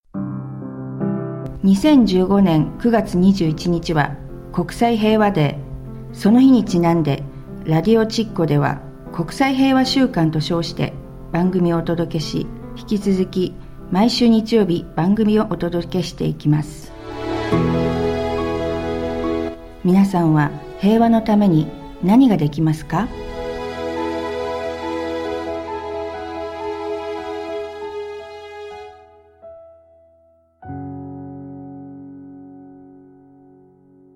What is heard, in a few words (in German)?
jingle Japanisch; Jingle Weltfriedenswoche Japanisch